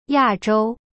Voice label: "亚洲 ya zhou", Chinese; cyberchinese